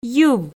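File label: "йыв", Komi, female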